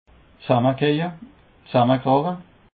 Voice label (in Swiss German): "dsammekeje", Alsace